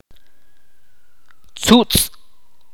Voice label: "pluma" in Ch'orti'

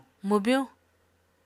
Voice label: "mwbyuñ", Apatani

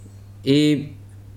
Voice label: "cold", Weri

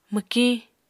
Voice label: "mwkiñ", Apatani

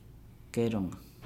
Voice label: "geelonga hole valley", Nukuoro

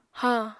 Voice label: "hañ", apatani

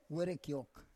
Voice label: "werekyok", Roon

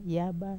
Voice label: "yaba", Wamesa

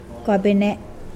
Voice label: "hell", Mokilese

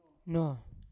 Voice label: "no", to stay, Yawa

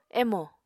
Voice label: "emo", apatani

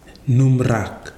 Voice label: "nɨmrakw", Nafe; Erakor

